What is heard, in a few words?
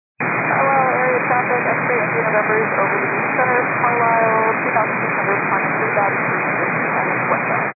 122 925MHz, scanner radio